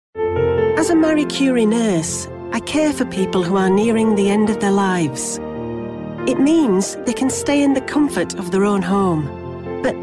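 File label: British English, female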